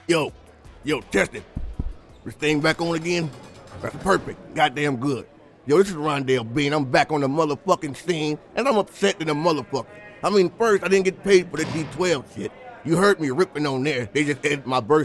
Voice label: commercial break